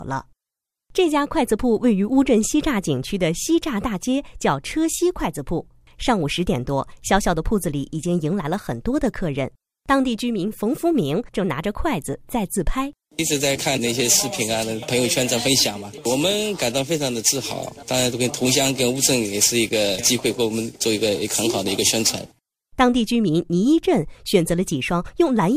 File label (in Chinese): 新闻特写新闻播音